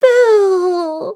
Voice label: hit, en; cookie run